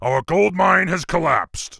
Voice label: Orc Grunt Gold Mine Collapsed; War3